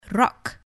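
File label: "rock", English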